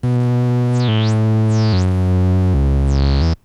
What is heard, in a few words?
regret synth; Granular